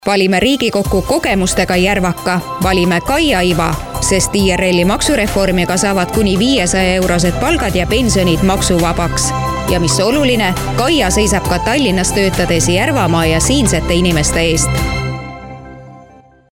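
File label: Estonian, female, explainer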